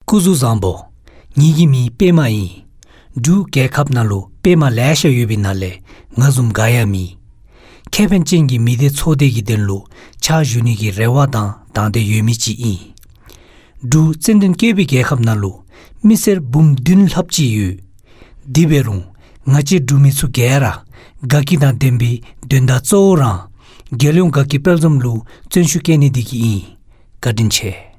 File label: Dzongkha Male Sample